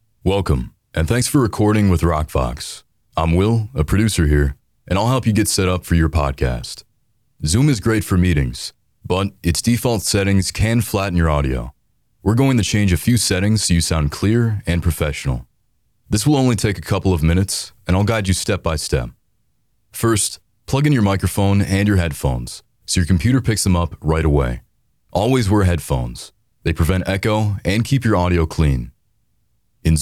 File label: narration voice over